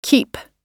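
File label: "keep", US English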